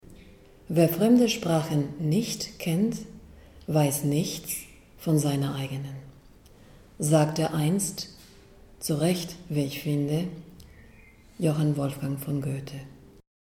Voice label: deutsch